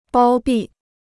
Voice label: "包庇", Chinese

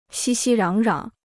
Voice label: "熙熙攘攘", Chinese

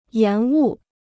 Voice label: "延误", Chinese

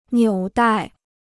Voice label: "纽带", Chinese

